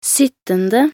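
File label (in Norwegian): "syttende", Norwegian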